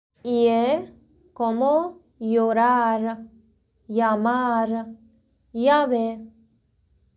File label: spanish